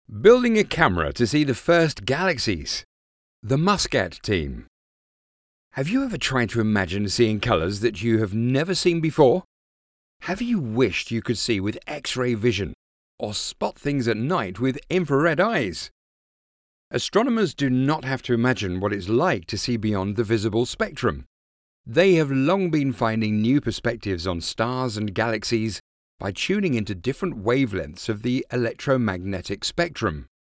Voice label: English (UK), Male

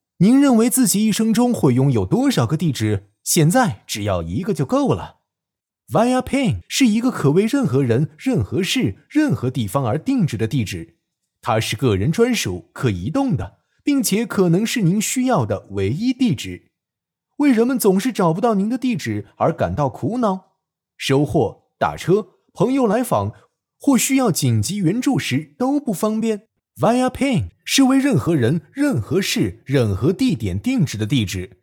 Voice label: Chinese, Male

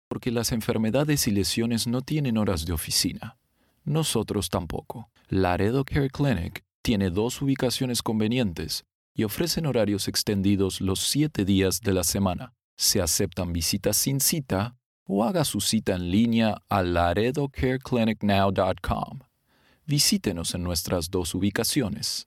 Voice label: Spanish (LA) Male

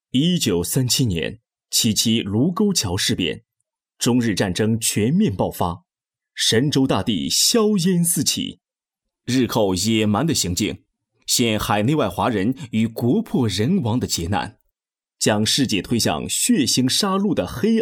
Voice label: Chinese, Male

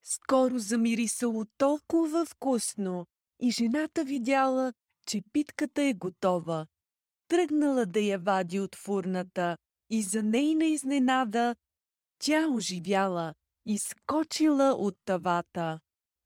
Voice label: Bulgarian, Female

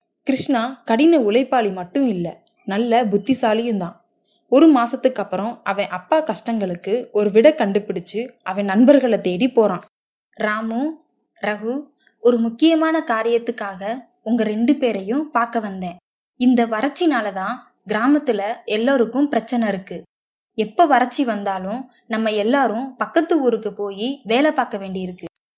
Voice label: Tamil, Female

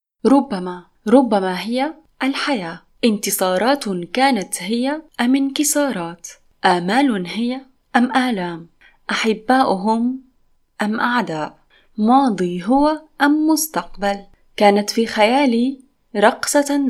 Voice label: Arabic, Female

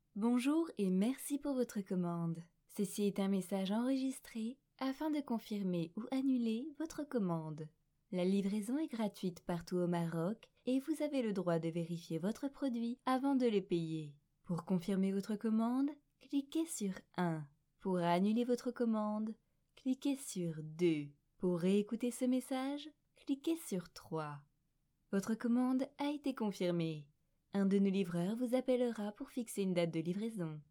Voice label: French, Female

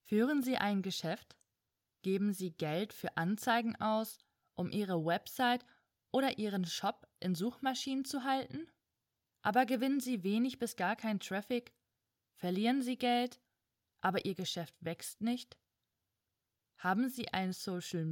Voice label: German, Female

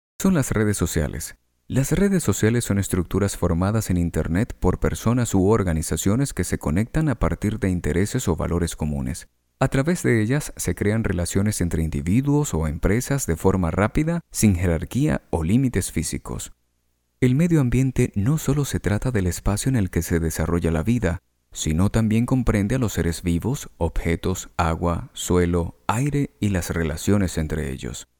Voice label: Spanish (LA), Male

